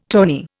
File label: "tawny", Indian